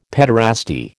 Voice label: "pederasty", American